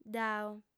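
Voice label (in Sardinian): "dao", female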